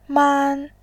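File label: "man", Mandarin, tone 1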